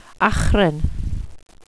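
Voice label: achren, welsh pronunciations; Wales/welsh names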